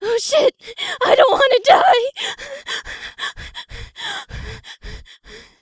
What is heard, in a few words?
Panic; zps